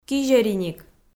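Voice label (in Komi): "кизьӧриник", Komi, female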